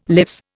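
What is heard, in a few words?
"lives", Indian English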